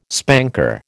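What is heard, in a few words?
"spanker", American English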